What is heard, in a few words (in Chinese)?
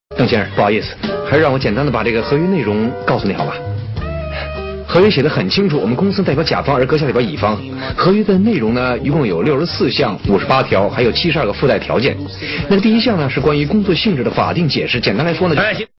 国语配音; 杜燕歌先生贵姓tvb